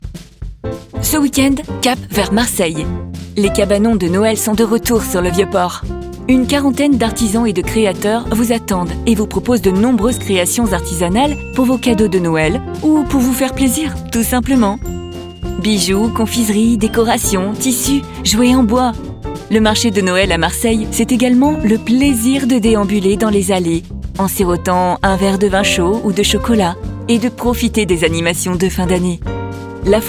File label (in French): VO PUB; INDIGO MARSEILLE